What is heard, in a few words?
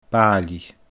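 "baaillidh", Scottish Gaelic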